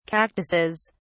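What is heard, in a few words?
"cactuses", British English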